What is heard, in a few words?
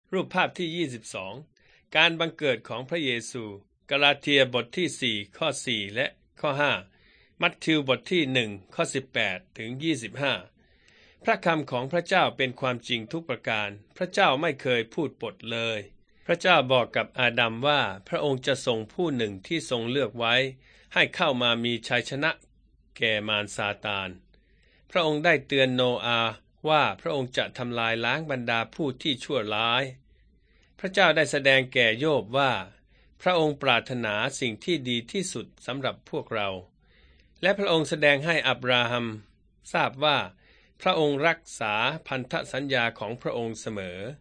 beginning with god thai central